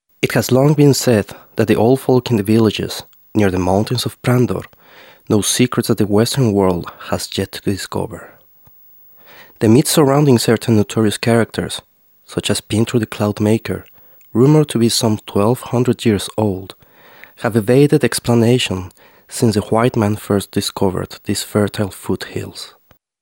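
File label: male English documentary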